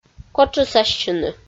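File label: "котшысассьыны", Komi, female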